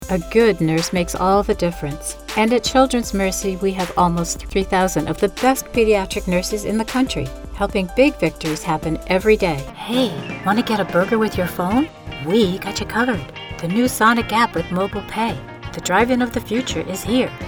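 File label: COMMERCIAL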